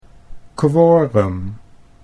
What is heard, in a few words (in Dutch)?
"quorum", Dutch